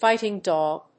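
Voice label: "fighting-dog", English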